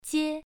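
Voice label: "jie", Mandarin Chinese, first tone, pronunciation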